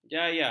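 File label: "jaya", Malay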